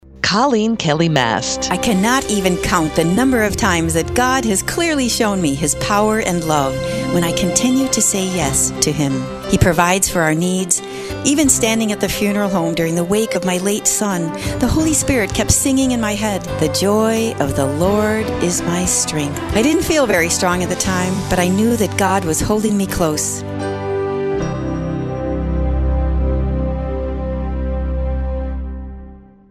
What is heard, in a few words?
Network Promo; Mast Appeal